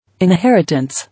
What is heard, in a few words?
"Inheritance", English